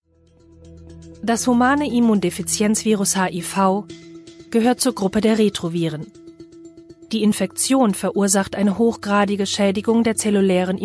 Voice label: german e-learning